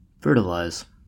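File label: "fertilize", US English; pronunciations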